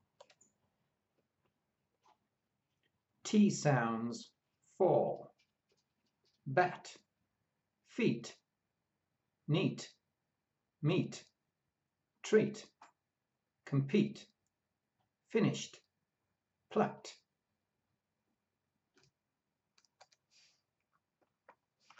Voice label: T sounds, pronunciation of australian english